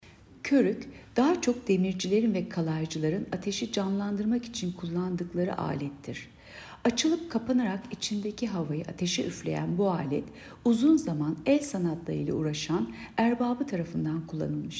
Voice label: turkce